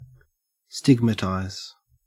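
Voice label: "stigmatize", Australian English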